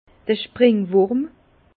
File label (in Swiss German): "springwurm", Bas Rhin; vin; pyrale